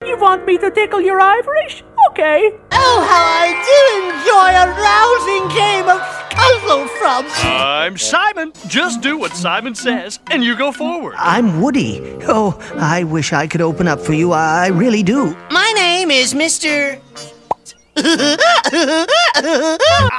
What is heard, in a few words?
ANIMATION DEMO, Voice Actor